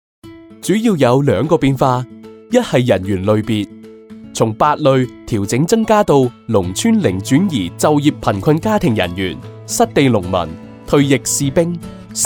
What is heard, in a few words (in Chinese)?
粤语 政务